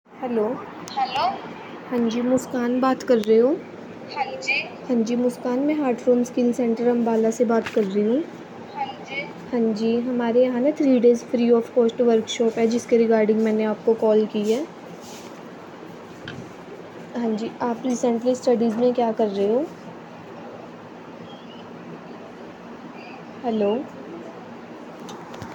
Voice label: call recording